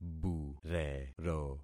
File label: "burero", concat syllables; Affixing experiment